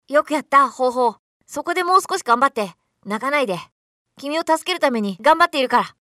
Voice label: boy brave